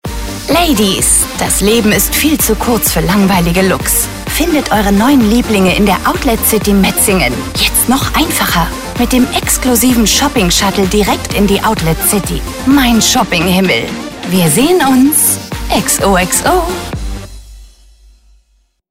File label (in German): telefonansagen sprecher; katie holmes; Hollywood Sprecher am Telefon